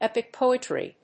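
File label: "Epic poetry", English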